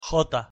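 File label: "j", Spanish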